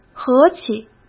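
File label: "和气", Mandarin Chinese; chinese-flashcards; hsk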